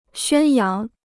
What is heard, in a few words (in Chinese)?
"宣扬", Chinese